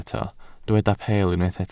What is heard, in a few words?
"peel", Welsh